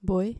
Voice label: "boy" dutch